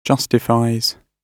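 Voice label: "justifies", British English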